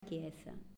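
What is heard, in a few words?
"Chiesa", Italian, female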